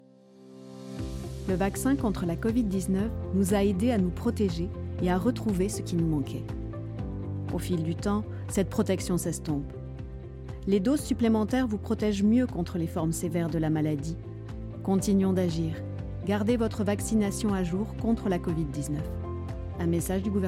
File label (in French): DemoVoix PUB